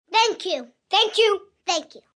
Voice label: Multi Thank You, Etiquette, 1 child, 8 yrs; Voice Box